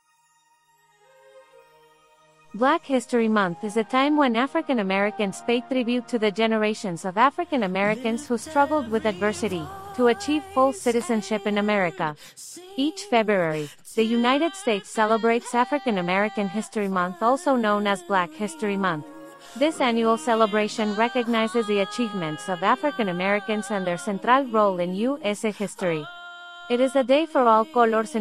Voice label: female spanish